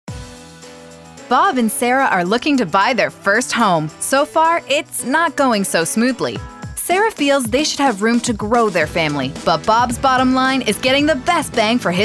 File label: narration; TADA